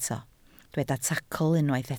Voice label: "tacl", Welsh